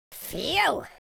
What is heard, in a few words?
relief, Pirate